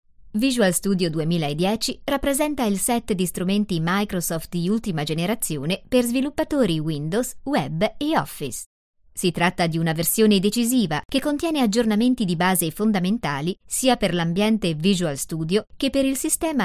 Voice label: FEMALE ITALIAN